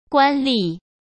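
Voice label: "官吏", Mandarin Chinese; hsk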